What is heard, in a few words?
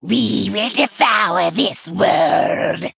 Female Night Elf Play